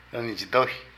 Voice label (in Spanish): Barbotar, Hablar entre dientes, Zapoteco de Petapa; Vocabulary